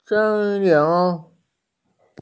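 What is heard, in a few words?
"senlin", Mandarin Chinese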